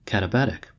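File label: "katabatic", American English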